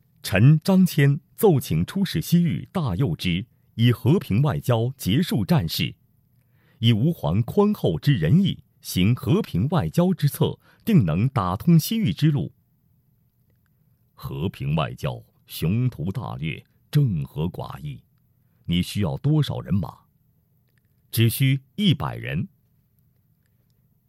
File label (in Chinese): 广告 银行广告; 民生银行广告; 模仿孙悦斌